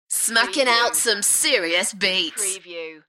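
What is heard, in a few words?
UK female, DJ vocals; beanstalk-audio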